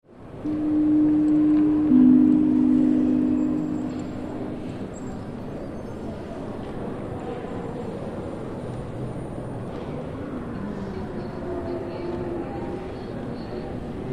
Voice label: Airport Waiting Area Tannoy Announcement In French And English Crowd Walla; alert bell x2